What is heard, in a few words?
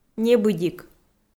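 "небыдик", Komi, female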